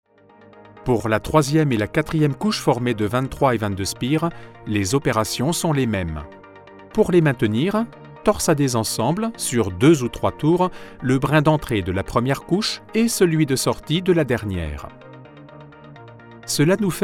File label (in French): voix off; elektortv; prop clock coil